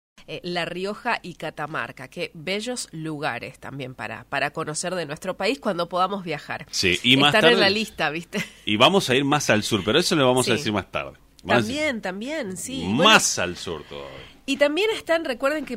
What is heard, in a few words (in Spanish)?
locutora Chaco